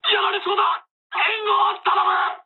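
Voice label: aircraft damaged mood med, speech japanese; War Thunder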